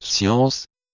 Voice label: "science", French; Fonetica